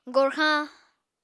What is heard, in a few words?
"gorxañ", Apatani